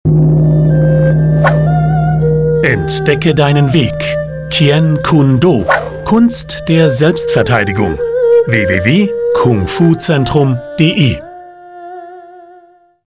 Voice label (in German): Spot; KungFuCetrum